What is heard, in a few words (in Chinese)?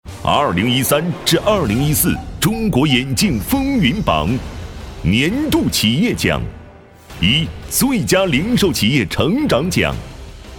专题 颁奖 磁性, 男; 中国眼镜风云榜